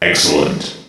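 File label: "excellent", English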